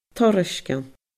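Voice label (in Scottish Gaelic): "toirsgian", Scottish Gaelic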